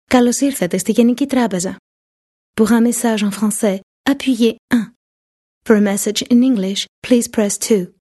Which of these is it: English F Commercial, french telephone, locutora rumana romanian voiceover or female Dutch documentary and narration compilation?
french telephone